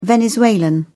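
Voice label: "venezuelan", British English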